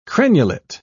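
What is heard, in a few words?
"crenulate", British English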